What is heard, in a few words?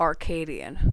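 "arcadian", US English; pronunciations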